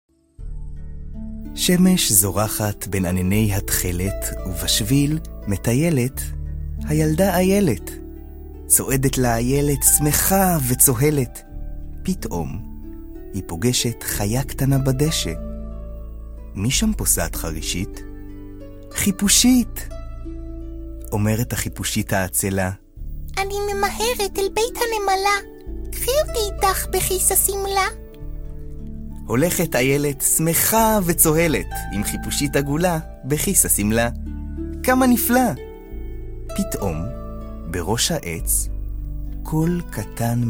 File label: hebrew audiobooks